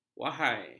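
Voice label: "wahai", Malay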